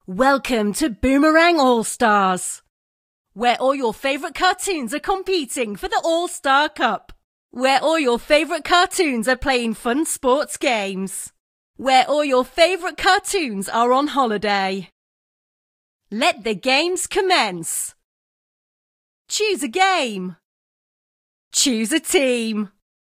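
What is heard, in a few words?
en-gb vo splash, British English voice-over; Boomerang All Stars - Super Goalie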